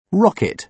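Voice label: "rocket", British English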